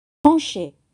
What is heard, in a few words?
"penche", French